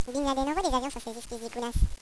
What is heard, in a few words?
children discuss; valve